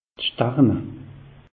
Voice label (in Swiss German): "starne", alsace; meteo